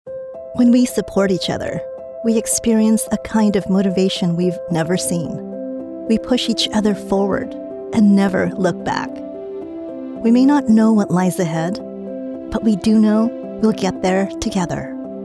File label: Anthem Explainer; Athleta Anthem; Slack Explainer; Reproductive Political